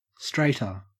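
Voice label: "straighter", Australian English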